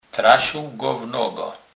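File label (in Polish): "trasec", kurpie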